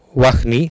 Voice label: "vahni", Sanskrit